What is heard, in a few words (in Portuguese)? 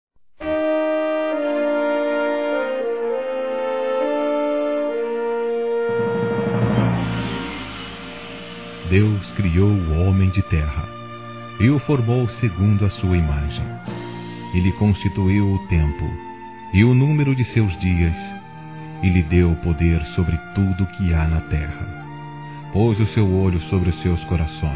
convite igreja, Gospel, masc